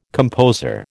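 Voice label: "composer", American English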